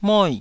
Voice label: "moi4", taiwanese